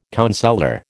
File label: "counsellor", American